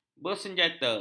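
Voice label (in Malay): "bersenjata", Malay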